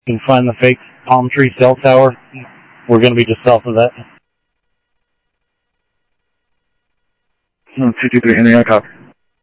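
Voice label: scanner audio trunk, South Scottsdale, Sheriff East County; MCSO - EAST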